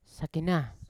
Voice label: "sakenan" to turn, Yawa